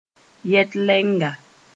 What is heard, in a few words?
"yɛt-lɛnga", Mooré; auxiliary